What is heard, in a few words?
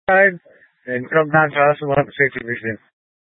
Light Rail Emergency, scanner